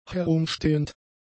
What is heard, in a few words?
"herumstehend", German; adjectives